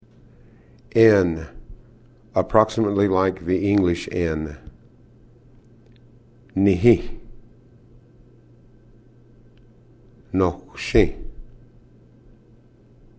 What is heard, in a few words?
n consonant, cherokee language